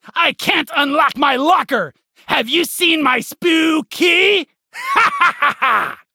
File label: Halloween Slap Ghost Spacerig